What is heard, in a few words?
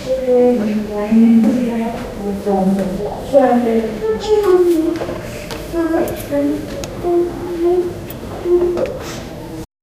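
nurse and patient mumbling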